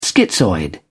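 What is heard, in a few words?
"schizoid", British English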